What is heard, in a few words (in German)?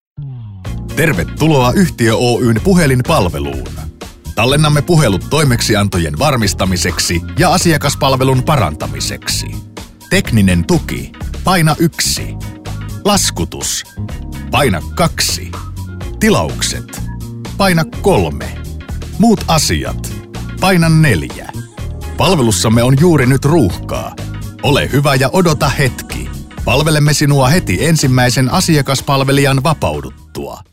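telefonansagen sprecher Finnish; Native Speaker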